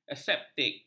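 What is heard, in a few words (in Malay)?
"aseptik", Malay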